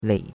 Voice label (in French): "lei3", chinois; Cours-de-Chinois